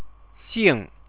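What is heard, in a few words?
"xing", Chinese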